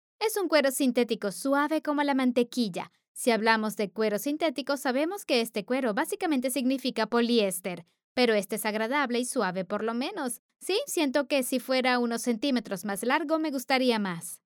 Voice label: Youtube Dubbing Latin American Neutral Spanish